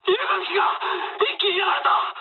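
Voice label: aircraft destroyed mood med, speech japanese; War Thunder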